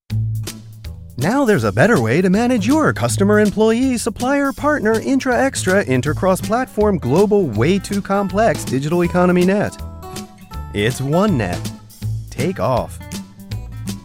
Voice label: usa man